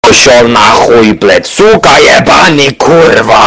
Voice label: BLYAT louder; torchlight